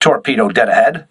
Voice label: voice message torpedo, crew ships english